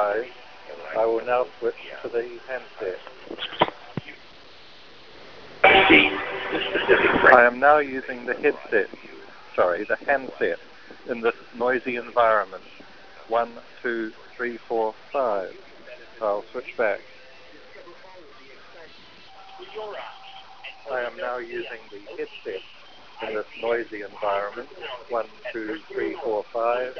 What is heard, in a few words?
motorola hs850 noise test, bluetooth